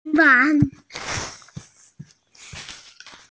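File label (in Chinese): "gong1yuan2", Chinese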